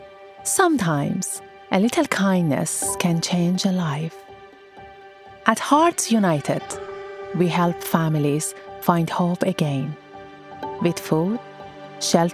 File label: English